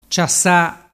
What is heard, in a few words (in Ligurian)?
"ciassa", dialettu